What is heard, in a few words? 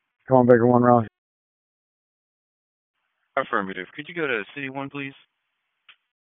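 Police Dispatch; Raleigh; Northeast District; p25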